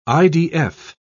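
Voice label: "idf", British English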